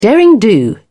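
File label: "derring-do", British English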